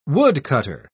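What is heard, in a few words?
"woodcutter", British English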